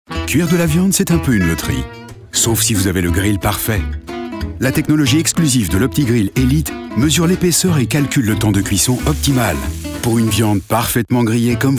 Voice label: tv vo straight reassuring nice fellow; tefal

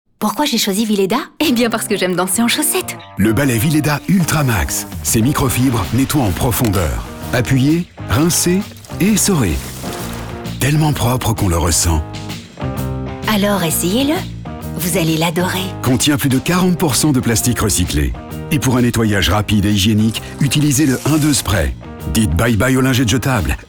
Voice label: tv, warm enthusiastic smile; vileda

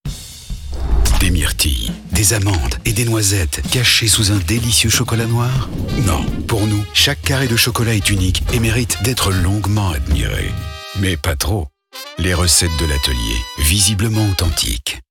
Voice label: tv vo warm deep tasty; nestle recettes atelier

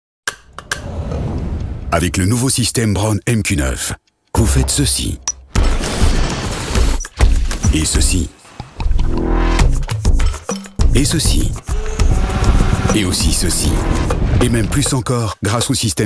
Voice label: tv deep strong obvious; braun mq9

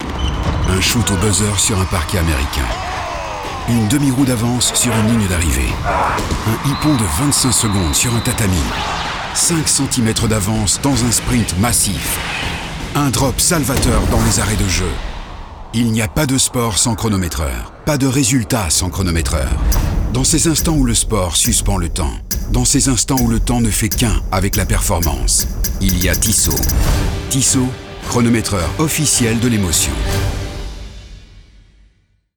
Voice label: advert medium low dynamic engaged; tissot

